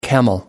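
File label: "camel", English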